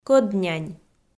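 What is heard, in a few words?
"кӧднянь", Komi-Zyrian, female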